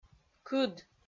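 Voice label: "кыд" Komi, female; kpv audio dict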